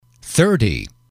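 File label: "thirty", English, male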